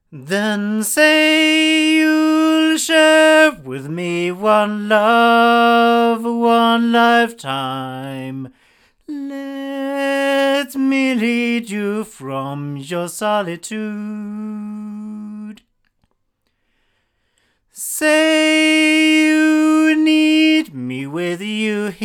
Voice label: male; sE Gemini